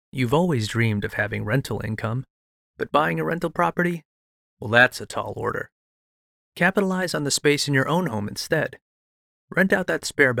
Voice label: Real Estate Ad; 30-Second Real Estate Ad